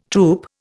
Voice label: "troupe", Indian

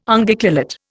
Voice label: "Unguiculate", Indian

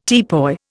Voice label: "teapoy", Indian

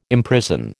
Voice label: "imprison", American

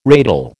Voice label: "ratel", American

